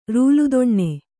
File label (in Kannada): "ರೂಲುದೊಣ್ಣೆ", kannada